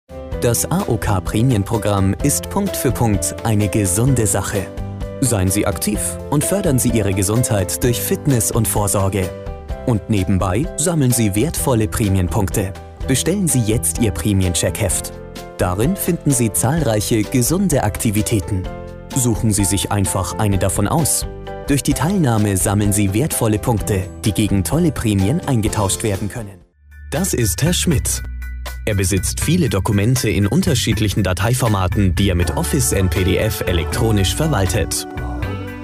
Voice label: german male corporate explainer, German Accent Male Voice